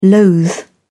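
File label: "loathe", English, British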